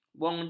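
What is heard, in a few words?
"bonjol", Malay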